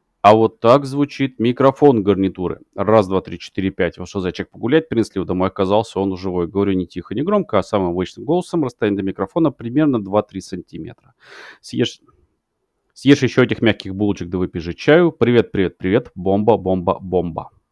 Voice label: mic test